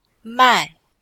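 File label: "mai4", Chinese; Cours-de-Chinois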